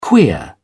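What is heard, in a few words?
"queer", British English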